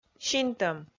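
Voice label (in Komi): "синтӧм", Komi, female; kpv audio dict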